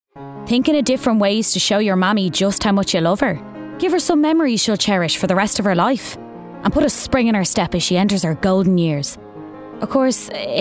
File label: PROMO; 50 Ways to Kill Mammy